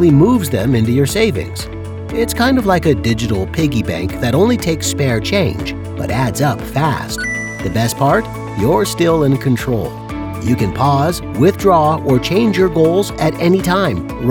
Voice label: Explainer Loop; Spanish (Latin American)